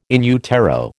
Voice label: "in utero", American

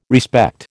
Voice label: "respect", American

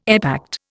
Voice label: "epact", Indian

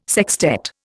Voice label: "sextet", Indian

